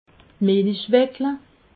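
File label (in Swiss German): "melichweckele", Alsatian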